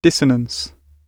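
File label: "dissonance", English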